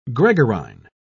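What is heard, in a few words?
"gregarine", British English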